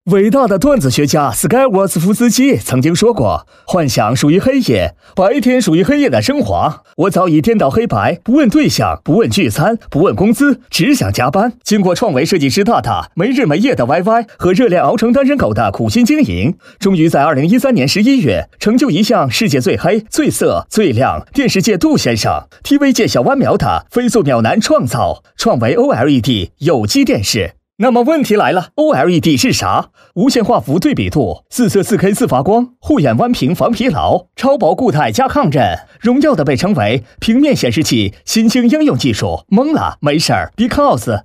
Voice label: 男, 诙谐篇; 飞碟说; 创维

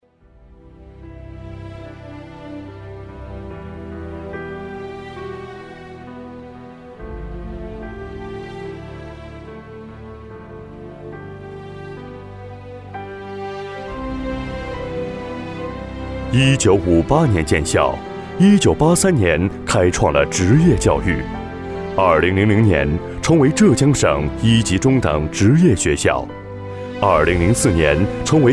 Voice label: 男95-专题-宣传片学校抒情厚重

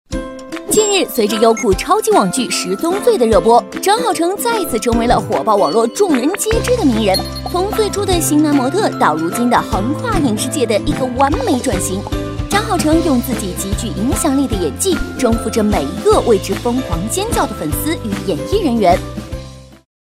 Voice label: 女 新闻 娱乐新闻; 优酷娱乐新闻

